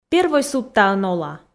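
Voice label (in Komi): первой судтаын ола, Komi, female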